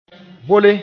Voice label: "bole", moore; plaster

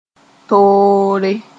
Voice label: "toore", Mooré; person (in)

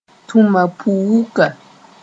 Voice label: "tũma pʋ-wuka", moore

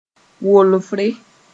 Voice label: "wolfre", Mooré

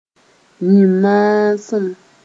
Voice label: "yĩn-maasem", Mooré

